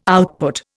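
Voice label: "output", Indian English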